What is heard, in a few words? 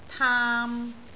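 "taam3", Cantonese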